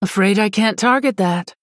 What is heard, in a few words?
Female Human error target